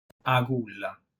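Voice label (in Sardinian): "Agulla", Sardinian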